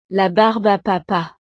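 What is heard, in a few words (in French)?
"la barbe a papa", French; snacks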